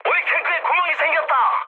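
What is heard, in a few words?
Korean damaged oil mood high, speech; War Thunder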